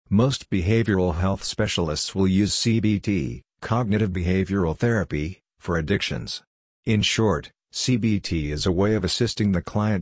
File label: Addictions Male Audio